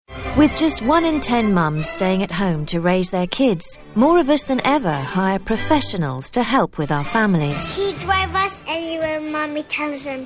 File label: Narrative